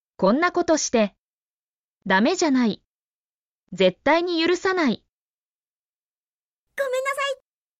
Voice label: Emotion Level: Extreme anger, decision to never forgive; Japanese; apology phrases; 「こんなことして！！ダメじゃない！！絶対に許さない！！（konnakotoshite！！damejanai！！zettainiyurusanai！！）」「ごめんなさい！（gomennasai！）」